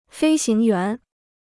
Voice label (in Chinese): "飞行员", Chinese